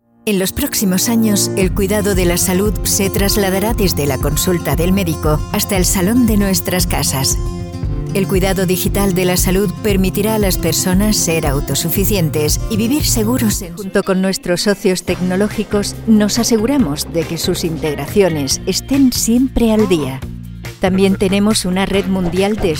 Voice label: spanish corporate videos

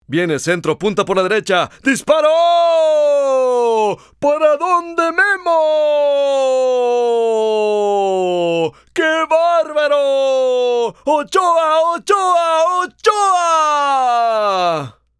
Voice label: spanish latin american

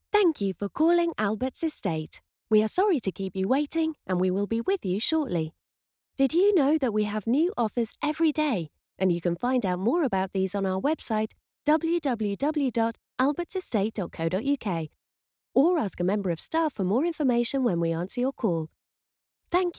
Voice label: english british ivr

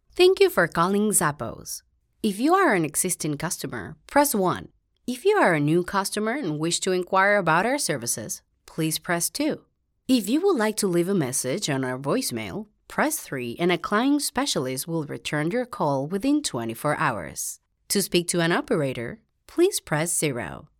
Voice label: english ivr

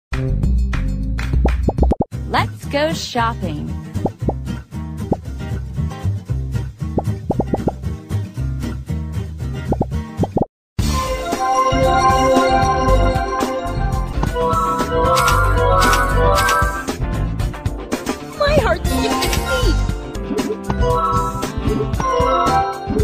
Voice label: English American video games

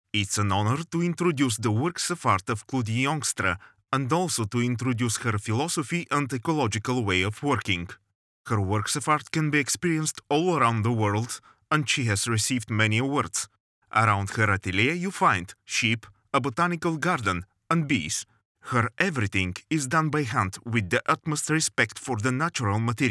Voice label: english narration

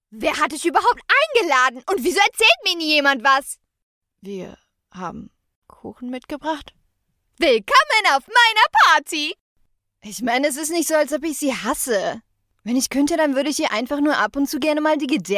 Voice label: german animation

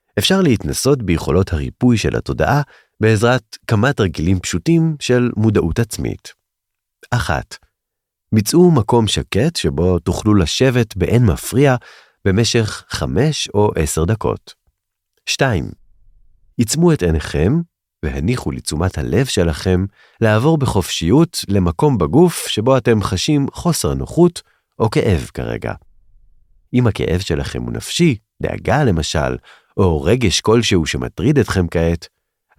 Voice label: Hebrew podcasts

